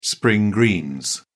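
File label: "spring greens", English, British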